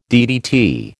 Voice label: "DDT", American